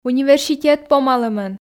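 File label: "университет помалӧмӧн", Komi, female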